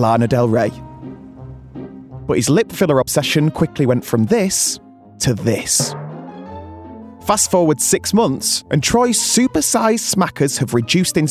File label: DOCUMENTARY Body Mods Lips